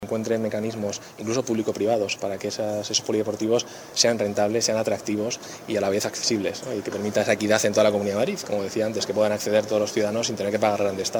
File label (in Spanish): noticias